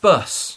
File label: "bus", English; ve meste